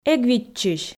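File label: "эг виччысь", Komi, female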